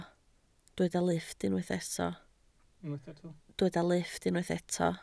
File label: "lifft", Welsh